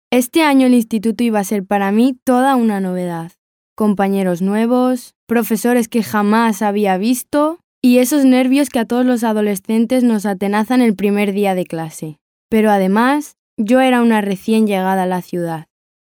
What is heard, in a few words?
locutora adolescente, teen voiceover, Spanish teen voice talent; Spanish child voice over services